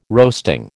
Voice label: "roasting", American